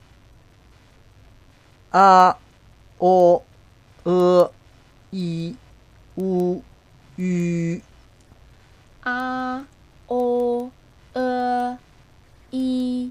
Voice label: pinyin, chinese